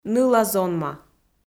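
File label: "ныла-зонма", Komi, female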